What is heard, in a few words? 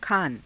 "khaana", Hindi